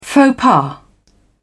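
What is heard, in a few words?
"faux pas", British English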